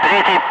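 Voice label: Russian loud; Ka-50; Wingman; DCS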